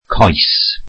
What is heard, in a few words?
welsh leg